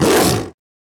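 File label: skill, Japanese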